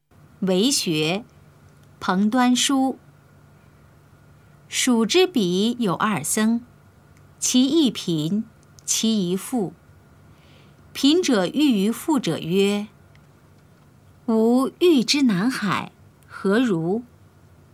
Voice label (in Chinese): putonghua; 為學（節錄）; 普通話